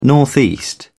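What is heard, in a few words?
"northeast", British English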